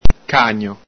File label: "cagno", Veneto